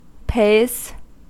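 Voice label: "pays" English, US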